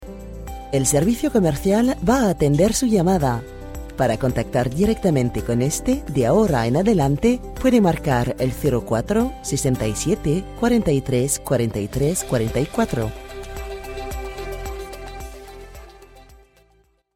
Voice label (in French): ESPAGNOL Attente téléphonique; GROUPE BARBA